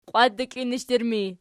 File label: "qiwatdiklinishdur", Archi